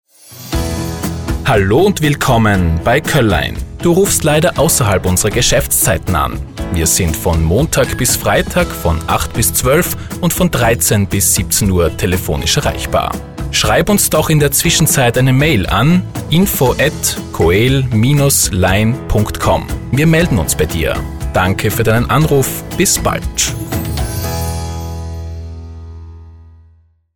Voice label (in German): Nachtansage; Koell Line; telefonansagen sonstige